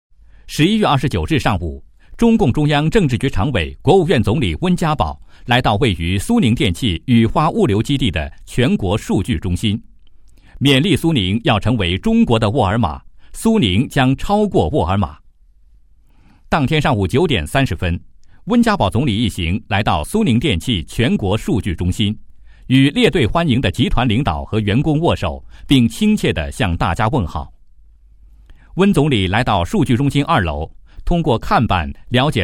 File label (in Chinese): 新闻样音; 新闻通告